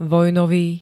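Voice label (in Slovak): "vojnový", Slovak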